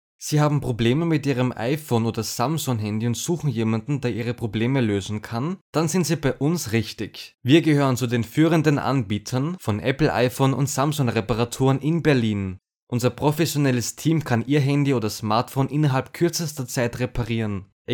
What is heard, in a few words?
GERMAN